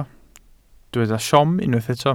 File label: "siom", Welsh